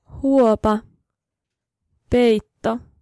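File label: Finnish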